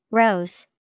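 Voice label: "Rose", English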